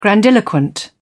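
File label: "grandiloquent", English, British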